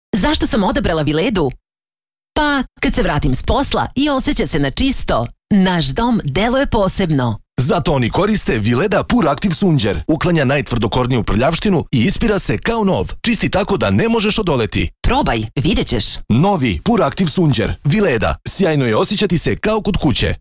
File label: Television Spots, Serbian, Female Voice; Kitchen; Vileda; Kitchen Kiss